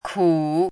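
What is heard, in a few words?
"kǔ", Chinese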